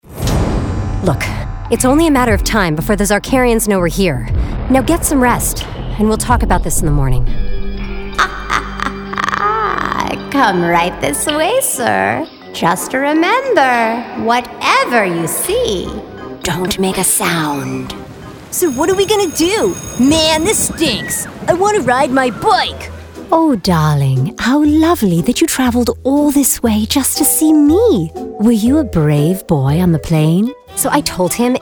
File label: english american cartoon animation